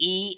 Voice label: eee big bird, Voice; numbers and letters